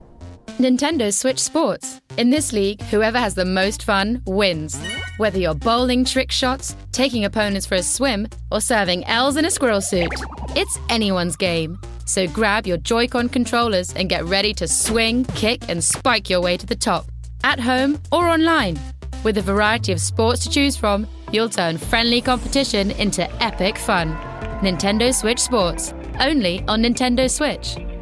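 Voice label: British English Commercial; GoLocalise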